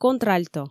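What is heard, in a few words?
"контральто", Komi, female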